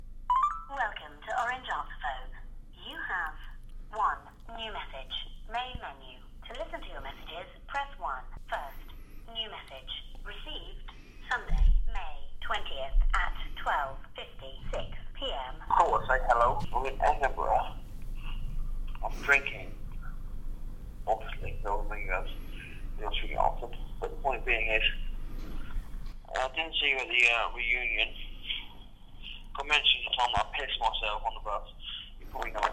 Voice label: drunken message